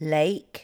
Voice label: "lake", english